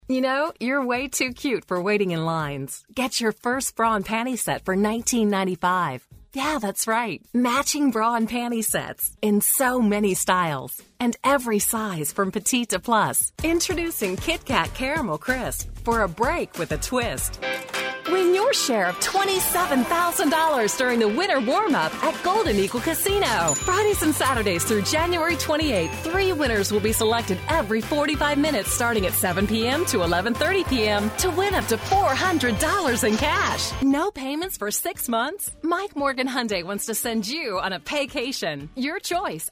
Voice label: Young Adult